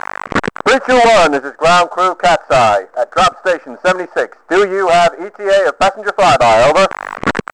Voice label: chat